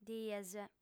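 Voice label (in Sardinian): "dies", female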